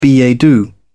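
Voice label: "billet doux", English, uk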